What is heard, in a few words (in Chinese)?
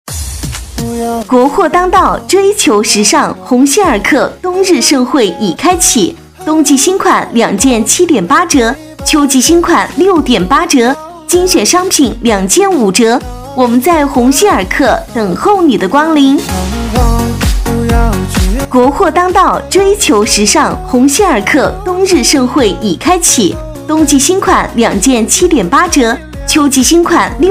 女 促销, 促销女配; 鸿星尔克